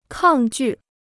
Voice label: "抗拒", Chinese

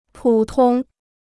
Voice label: "普通", Chinese

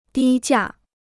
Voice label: "低价", Chinese

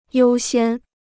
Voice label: "优先", Chinese

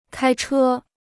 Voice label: "开车", Chinese

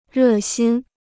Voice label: "热心", Chinese

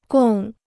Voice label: "共", Chinese

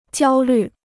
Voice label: "焦虑", Chinese

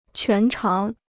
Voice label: "全长", Chinese

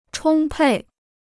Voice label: "充沛", Chinese

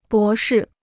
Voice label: "博士", Chinese

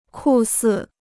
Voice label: "酷似", Chinese

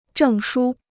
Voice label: "证书", Chinese

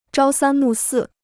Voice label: "朝三暮四", Chinese

